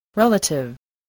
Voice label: "relative", spelling voice